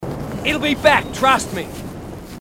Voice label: marine aussie; h2dialogue

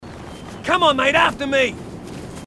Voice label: marine aussie; h2dialogue

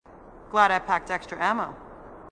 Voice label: marine female, sassy

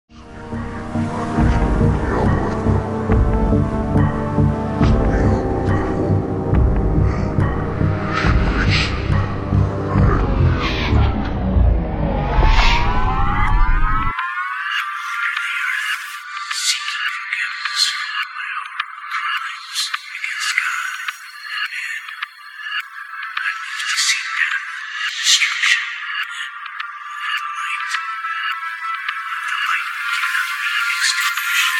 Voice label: whisper splice

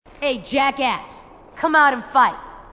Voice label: female marine

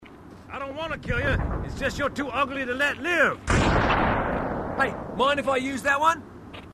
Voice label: marine conversation; h2dialogue

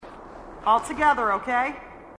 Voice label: marine female, sassy

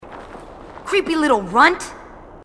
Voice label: marine tough

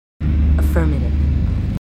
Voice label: marines female

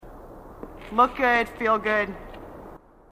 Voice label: marine female, sassy

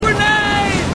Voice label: marine aussie; h3dialogue

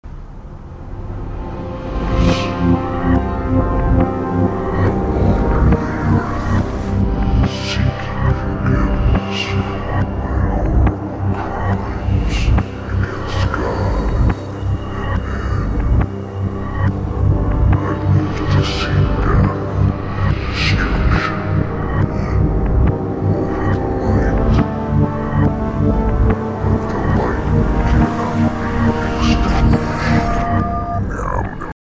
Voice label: whisper clip reversed

